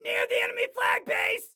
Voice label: Enemy Near Enemy Flag, Excited Guy